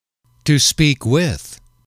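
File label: "to speak with", English, male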